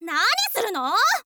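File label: nanbi suru no, Japanese, girl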